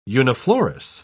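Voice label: "uniflorous", British English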